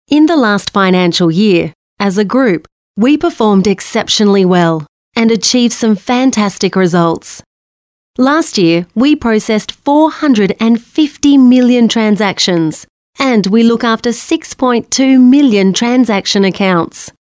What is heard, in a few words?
female talent corporate